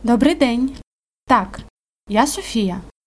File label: dialogue, Ukrainian